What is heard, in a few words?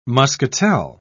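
"muscatel", British English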